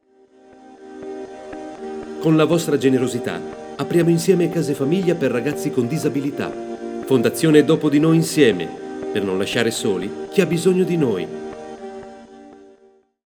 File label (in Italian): istituzionale